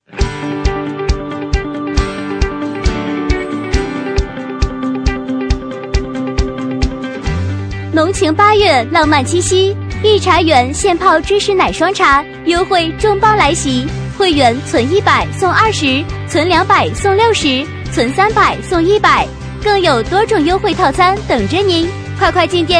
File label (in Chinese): 女 促销, 促销女配; 一茶源现泡芝士奶霜